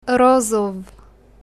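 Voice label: "pink", Bulgarian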